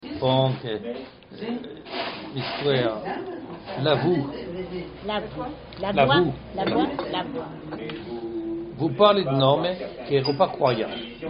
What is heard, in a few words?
la voix, Occitan; Le cafe occitan de TULLE